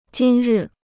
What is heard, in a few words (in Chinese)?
"今日", Chinese